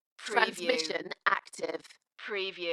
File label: UK female, Transmission active, Dalekness; beanstalk audio